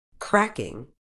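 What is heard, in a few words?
"cracking", British English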